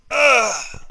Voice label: pain, voice; Tribes2